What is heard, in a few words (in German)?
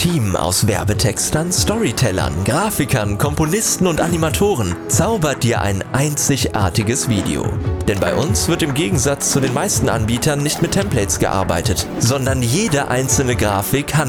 erklärvideo